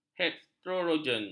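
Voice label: "heterogen", Malay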